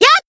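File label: attack, Japanese; harle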